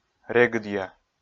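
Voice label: "регыдъя", Komi, male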